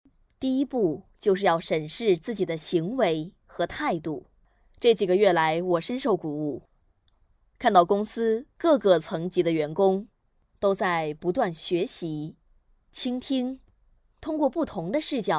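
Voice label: Mandarin Chinese, female, voice over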